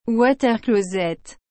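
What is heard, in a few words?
"water-closet", French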